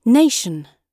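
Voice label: "nation", British English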